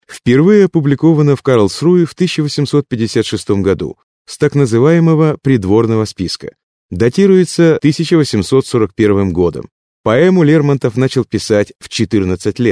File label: russian male